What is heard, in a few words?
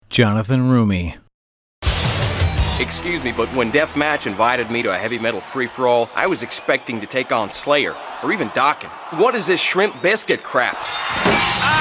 animation, animation voices men